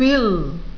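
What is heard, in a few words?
"fill", English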